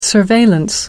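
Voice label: "surveillance", English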